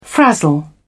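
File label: "frazzle", British English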